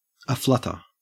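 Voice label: "aflutter", Australian English; pronunciations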